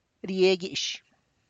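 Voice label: tlacochahuaya, Zapotec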